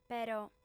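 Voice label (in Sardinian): "però", Sardinian, female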